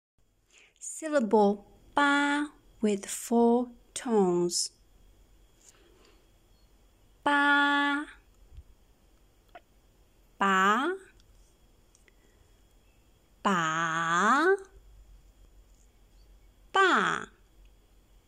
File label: ba with 4 tones, Mandarin